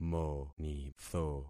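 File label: "monitho", concatenated syllables; Affixing experiment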